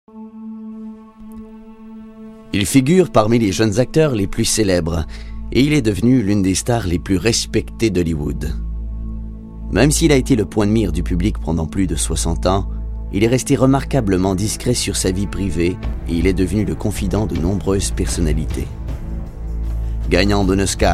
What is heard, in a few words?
Narration